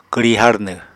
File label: "kwri harnw", Apatani